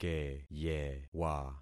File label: "keyewa", concat syllables; Affixing experiment